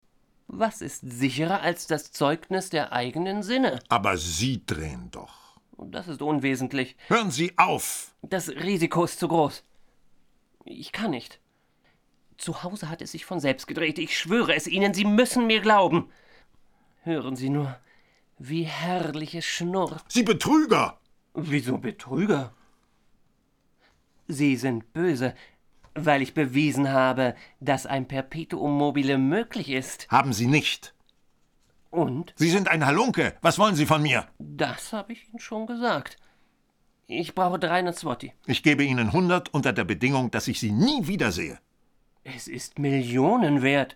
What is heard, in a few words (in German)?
Hoerspiel; DER ERFINDER; Hörspiel; Regie und Sprechpartner